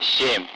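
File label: Speech Sound Russian Common Allied Flight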